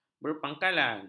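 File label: "berpangkalan", Malay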